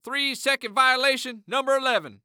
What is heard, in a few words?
Sports Human Voice Male Basketball Referee